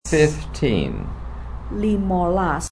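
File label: "Limolas" Javanese; 15 fifteen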